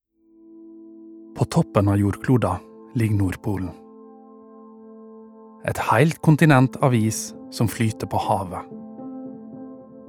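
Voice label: Norwegian dokumentar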